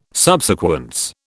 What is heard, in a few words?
"subsequence", British